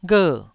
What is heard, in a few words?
"gher4", Taiwanese syllable